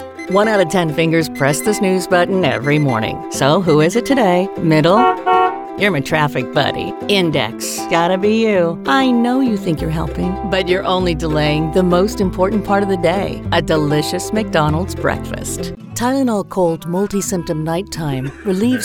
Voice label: commercial, commercial women

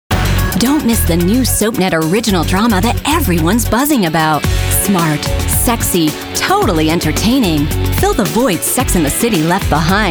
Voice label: promo, women

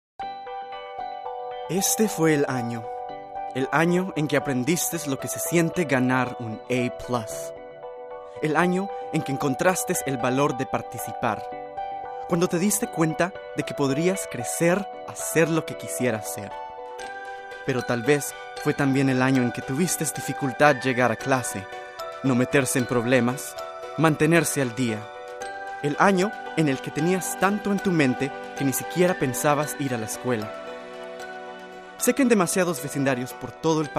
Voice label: spanish commercial

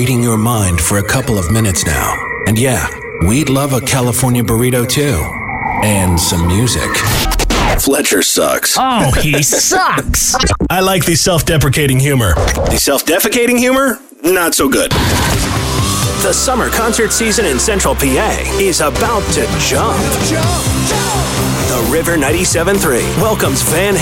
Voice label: radio men rock alt; radio imaging

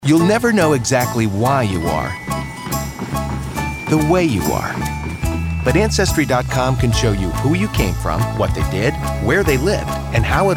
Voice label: commercial, men

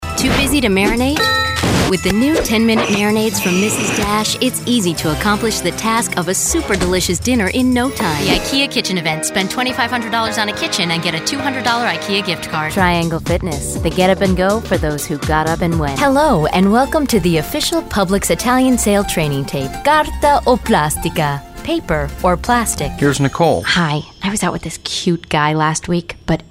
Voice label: commercial, commercial women

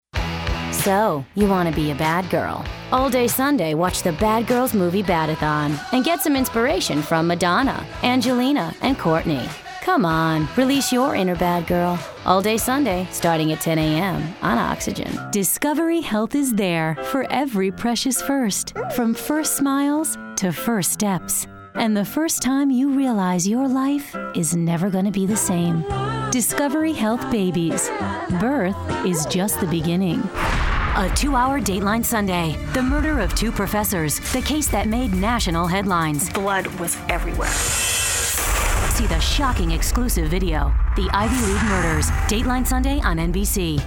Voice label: promo, promos women

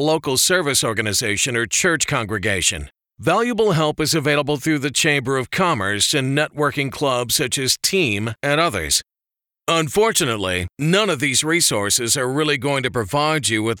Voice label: audiobooks men business

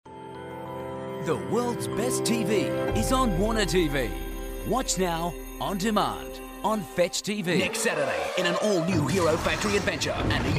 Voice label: promo male

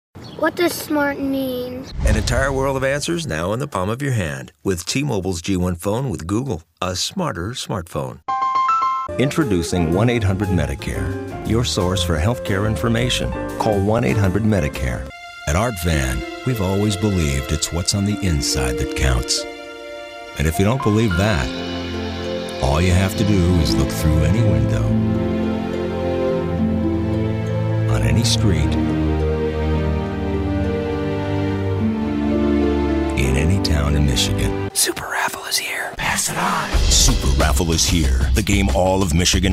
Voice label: commercial men

